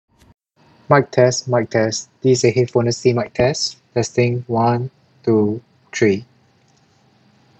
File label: Jabra Elite Active 65T Quiet